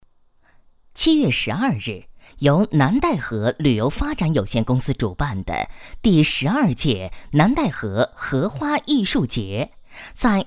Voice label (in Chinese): 女 新闻, 国语女声配音, 成熟稳重; 荷花艺术节